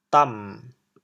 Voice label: "dam6", chaoyang